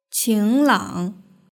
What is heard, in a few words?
"qinglang", Chinese; duiniutanqin